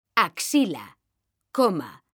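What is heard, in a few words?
"axila coma", Spanish, female; mekanta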